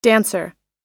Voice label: "dancer", us